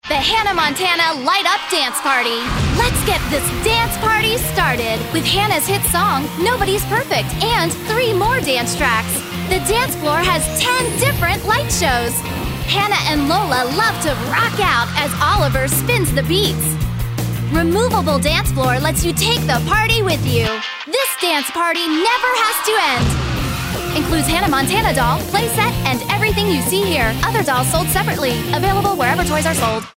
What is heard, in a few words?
Kid Friendly